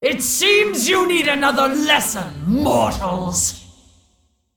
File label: Female Dragon Play